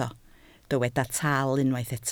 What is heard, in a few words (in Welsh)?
"tal", Welsh